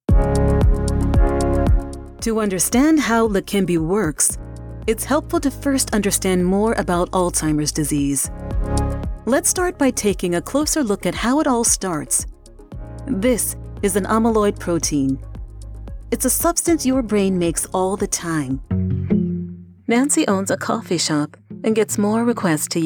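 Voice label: Narrations, Female Voice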